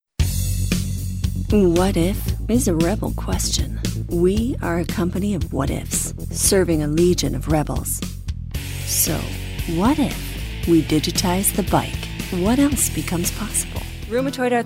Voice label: Amerikan Ingilizcesi Kadin

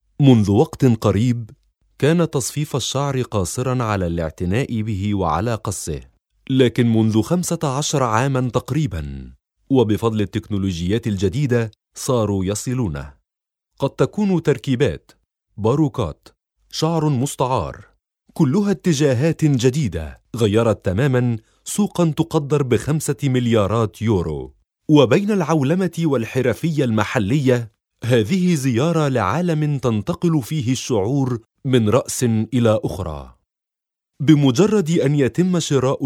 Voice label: Arapca Erkek Klasik Arapca Misirli; erkek sesler; seslendirme

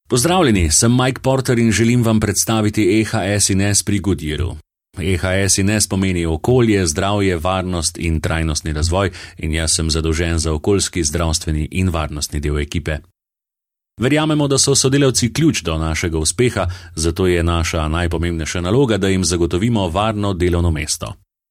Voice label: Slovence Erkek, slovence seslendirme; erkek sesler

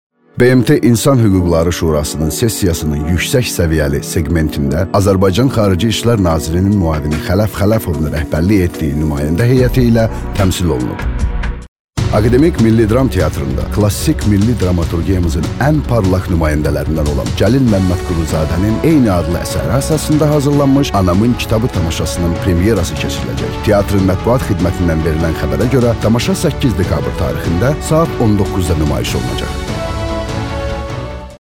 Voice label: Azerice Erkek; azerice seslendirme; erkek sesler

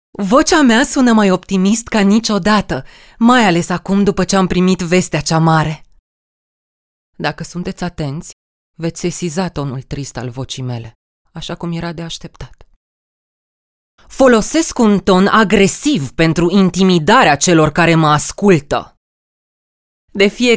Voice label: Romence Kadin, romence seslendirme; kadin sesler

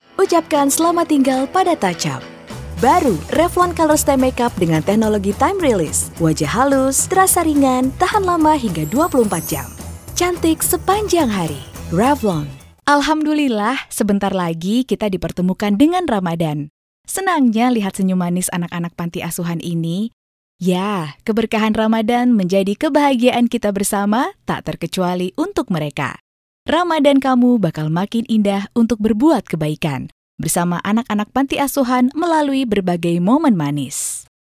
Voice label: Endonezce Kadin, seslendirme

